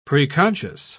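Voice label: "preconscious", British English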